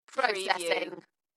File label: UK female "Processing" Dalekness; beanstalk audio